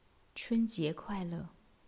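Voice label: "chun1jie2kuai4le4", Chinese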